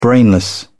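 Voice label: "brainless", British English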